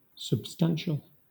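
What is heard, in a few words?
"substantial", British English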